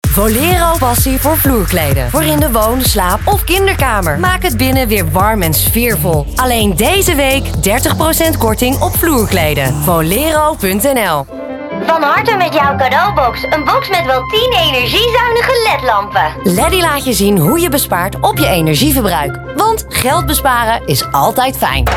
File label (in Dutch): Commercieel